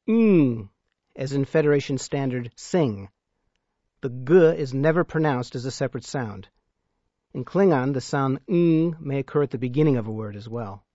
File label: Klingon sounds ng, Letter ng, as in sing